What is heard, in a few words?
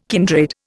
"kindred", Indian